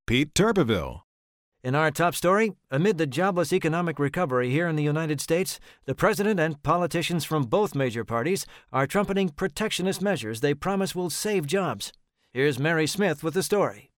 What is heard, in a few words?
NEWS ANCHOR